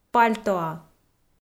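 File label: "пальтоа", Komi, female; audio dict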